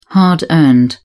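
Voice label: "hard earned", British English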